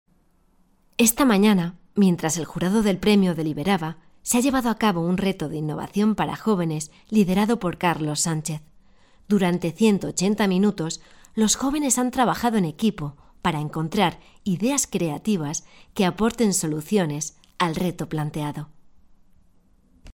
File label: spanish Spain female